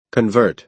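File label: "convert", American English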